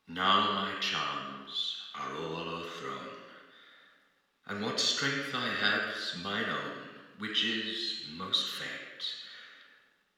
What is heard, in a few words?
Convolution, Royal Drama Theatre, Sweden; Prospero